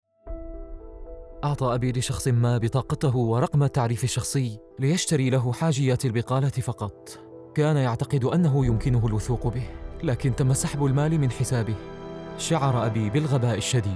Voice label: Arabic; Seniors Rights Services; PIN